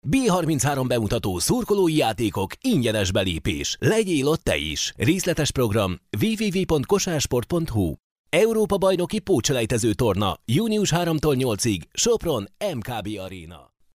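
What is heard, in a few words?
Hungarian, male, commercial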